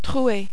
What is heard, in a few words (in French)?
"trouer", French